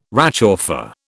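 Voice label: "réchauffé", British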